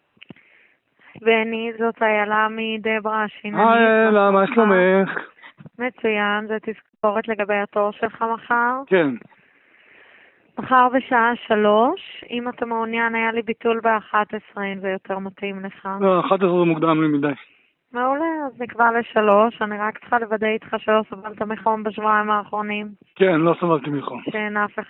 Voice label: call recording